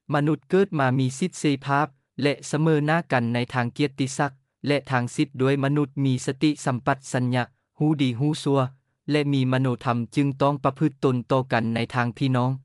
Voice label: udhr lao

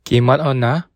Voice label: excuse me, bonggi

